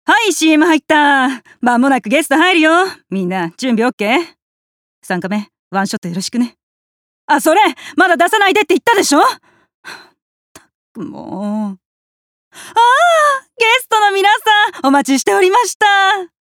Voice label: 番組スタッフ30代

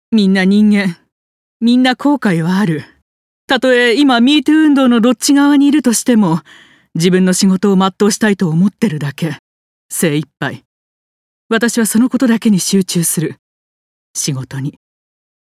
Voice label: 聡明女性30代

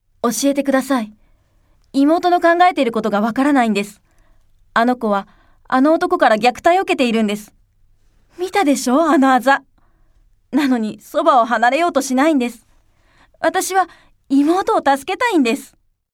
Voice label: 切実な訴え

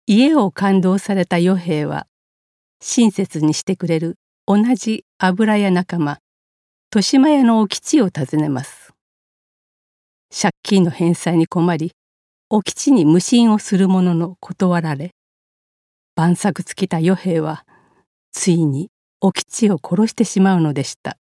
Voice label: 時代もの朗読

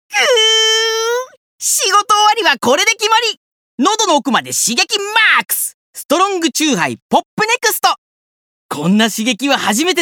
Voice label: ハイテンションCM

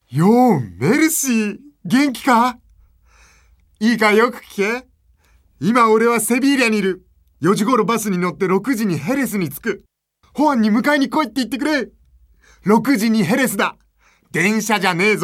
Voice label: 喜び

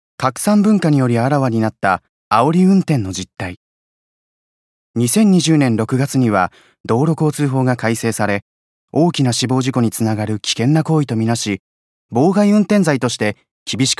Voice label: クローズアップ番組司会

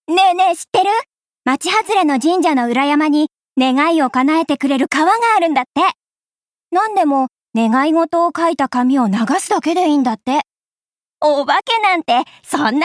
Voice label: 高校生

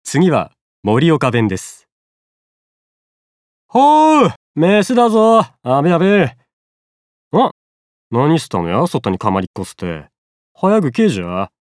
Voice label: 盛岡弁; 方言

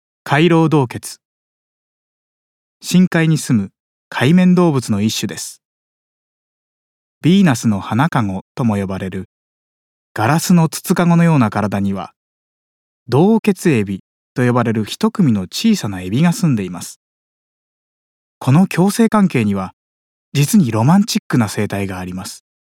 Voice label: 解説ナレ

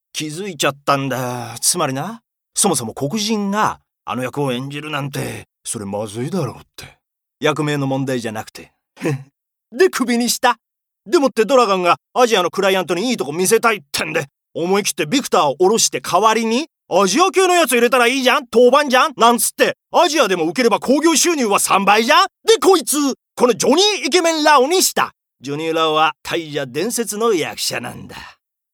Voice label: 軽妙なプロデューサー

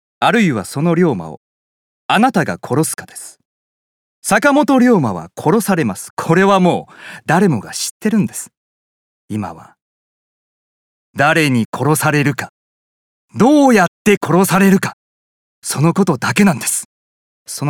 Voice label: 舞台セリフ30代

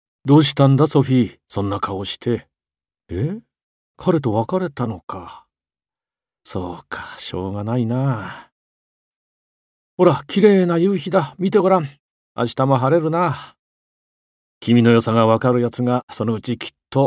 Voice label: 穏やかな父親

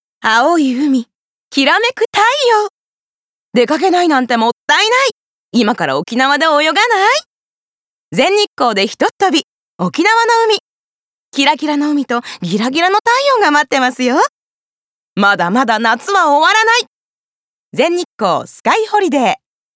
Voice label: 旅行CM

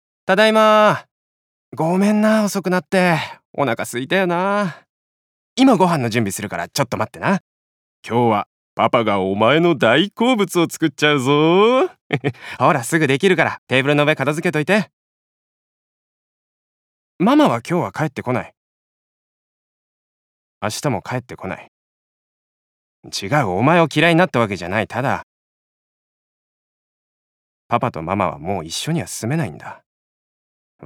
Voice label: 子供に離婚を説明するシングルファザー

